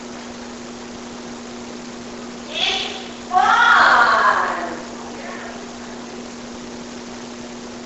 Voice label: Ballroom Unexplained Female Voice (It's Fun) Amplified